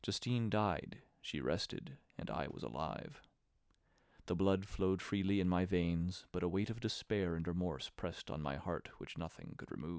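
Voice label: male, instantaneous, source; SiSEC11; underdetermined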